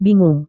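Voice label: "bingung", Indonesian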